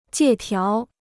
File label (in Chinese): "借条", Chinese